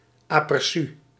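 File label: "aperçu", Dutch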